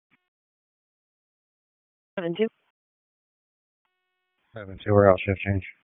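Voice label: EMS DISP, radio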